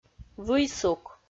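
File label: "выйсук", Komi, female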